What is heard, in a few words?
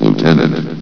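"lieutenant", male synthesized announcer voice; blizzard; hlvox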